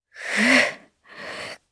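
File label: Vox Casting, Japanese; kingsraid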